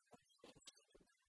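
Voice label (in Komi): ме муна институтӧ, Komi, female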